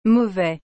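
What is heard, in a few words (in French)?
"mauvais", French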